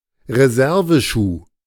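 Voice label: "Reserveschuh", German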